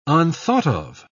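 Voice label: "unthought-of", British English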